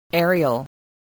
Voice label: "aerial", English voice